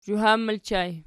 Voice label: "zhuhab", Archi

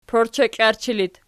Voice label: "porcheklarchi", Archi

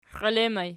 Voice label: xielettu ergpl, archi

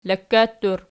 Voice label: "lakkattur", Archi

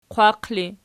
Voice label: "qwaq", Archi

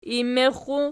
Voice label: "immexas pf", Archi

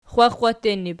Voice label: "xwaxwatennib", Archi

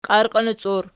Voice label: "qiarqinoci", Archi

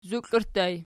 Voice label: "zukliarttu", Archi

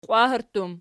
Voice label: "qwaherttum", Archi

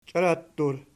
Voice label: "chiorattur", Archi

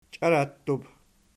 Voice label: "chiorattub", Archi

